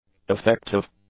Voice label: "affective", English; adjectives